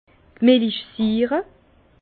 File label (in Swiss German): "milichsiire", Bas Rhin; vin; acide lactique